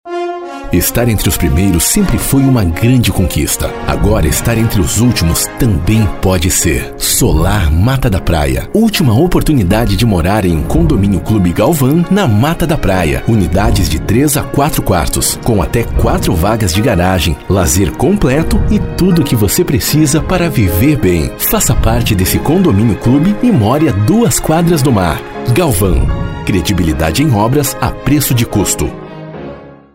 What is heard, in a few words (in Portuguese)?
PADRÃO INSTITUCIONAL